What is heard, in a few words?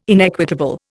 "inequitable", Indian